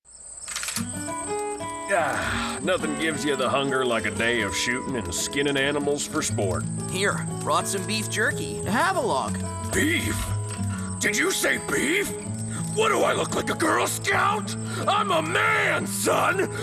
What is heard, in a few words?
Commercial; Rhino Jerky